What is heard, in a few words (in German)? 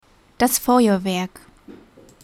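"das Feuerwerk", German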